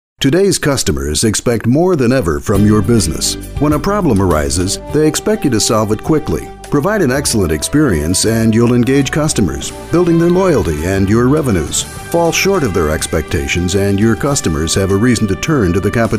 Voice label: GENERIC CONVERSATIONAL WITH MUSIC

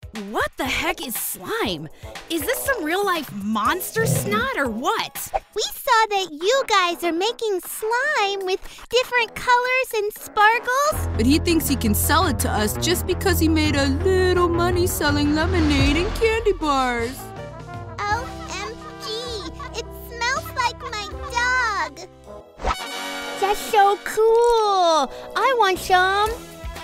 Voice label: Kid Voices

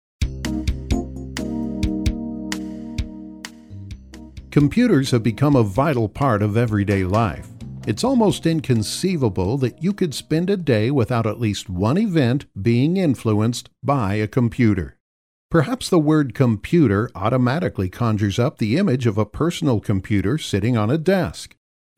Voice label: E-Learning Narration

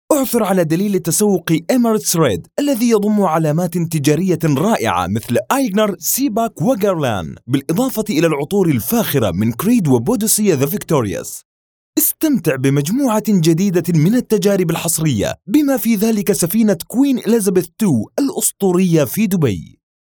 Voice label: White Arabic DRY; Fly Emirates; ICE

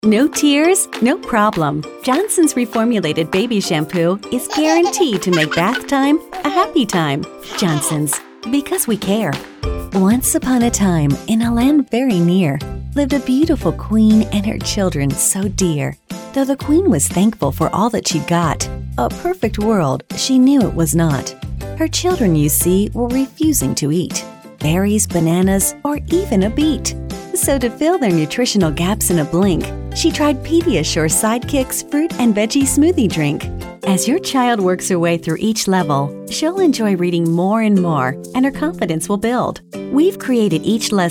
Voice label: MOM

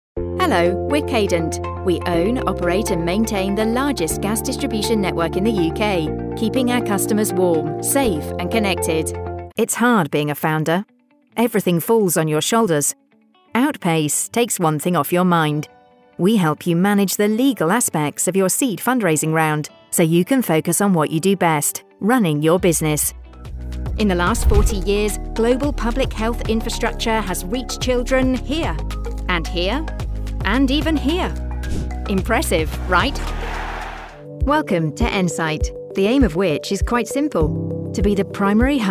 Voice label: Corporate

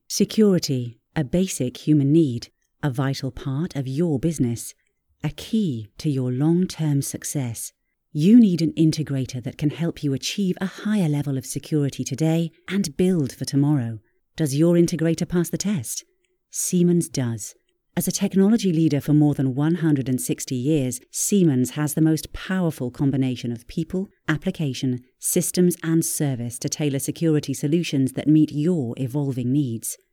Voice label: Corp UK Serious

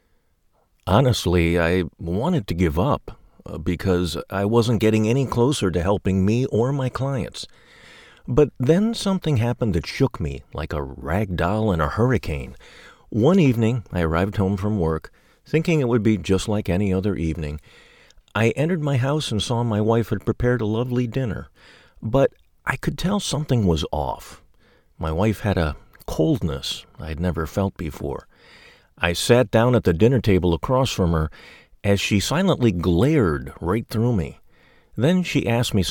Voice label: TESTIMONIAL HUSBAND

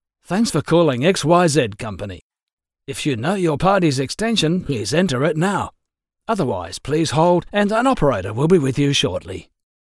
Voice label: phone message male

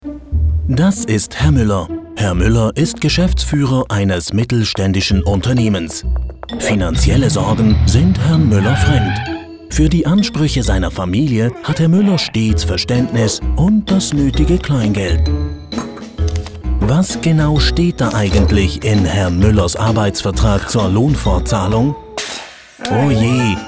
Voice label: Explainer German

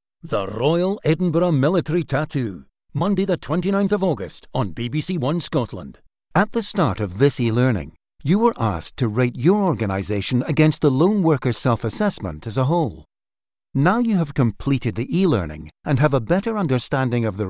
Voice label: Dry

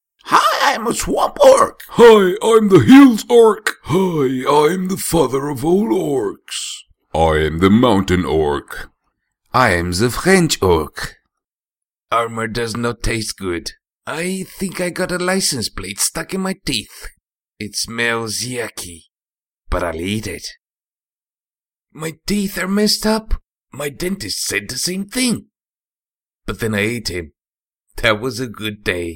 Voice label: A few Game and Cartoon Characters

